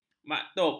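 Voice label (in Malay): "maktub", Malay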